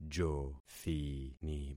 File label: "jothini", concat syllables; Affixing experiment